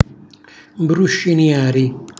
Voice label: "mbruscinjari", Calabrese; cucina calabrese; Glossario